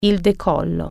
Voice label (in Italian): "the takeoff", Italian